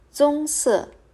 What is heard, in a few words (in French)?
"Brun" Mandarin